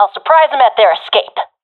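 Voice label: on my way to escape zone, professional female; player vo; Counter Strike Model Pack; CS:GO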